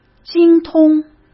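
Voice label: "精通", Mandarin Chinese; hsk; chinese-flashcards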